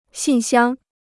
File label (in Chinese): "信箱", Chinese